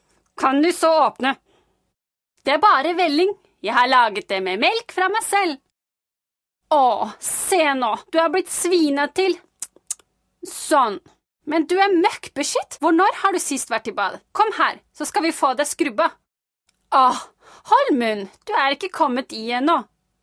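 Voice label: Norwegian character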